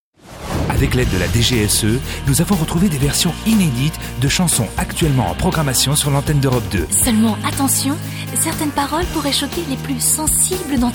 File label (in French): animatrice imitation; Europe 2